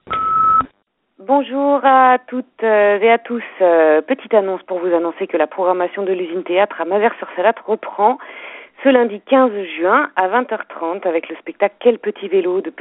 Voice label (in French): repondeur; usine theatre